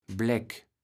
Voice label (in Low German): "Bleck", kaevels platt